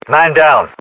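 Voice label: reaction casualty generic, British; battlechatter; localized english; uk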